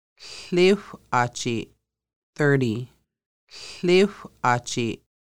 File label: Thirty, conversational Lushootseed; Conversational Lushootseed: Lessons 1-5